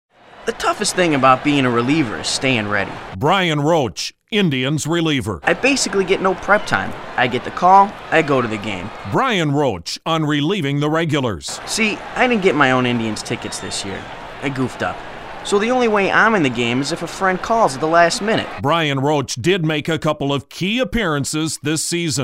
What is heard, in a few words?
Guy Next Door